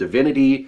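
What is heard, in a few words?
"divinity", American English; pronunciations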